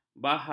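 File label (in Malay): "bahang", Malay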